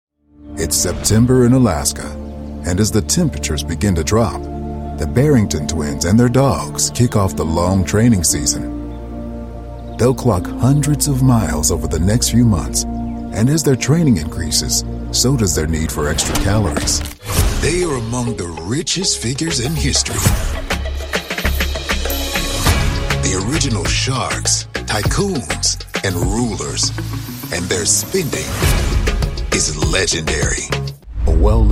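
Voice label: American English, Narration; voice demos; GoLocalise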